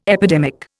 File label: "epidemic", Indian